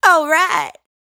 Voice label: "Alright", adlib, Dry Vocals; Raw Vocals; Adlibs